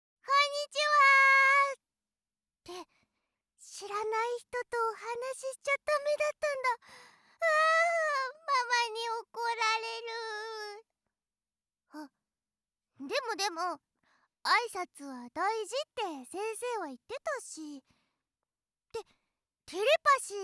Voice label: 幼い女の子; 元気な幼女

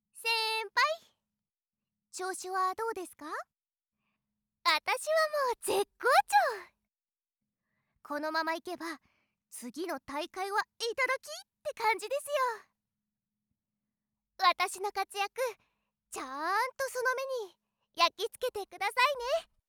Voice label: 元気な後輩; 活発な後輩

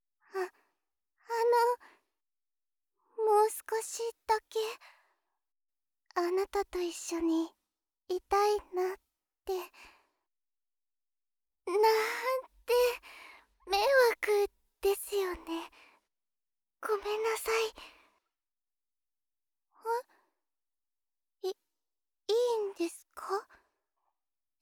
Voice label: 気弱ちゃん; おとなしい少女

